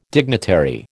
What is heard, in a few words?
"dignitary", American